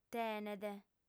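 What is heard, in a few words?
"tenet", lingua sarda, female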